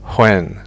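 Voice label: "when", English; fonetica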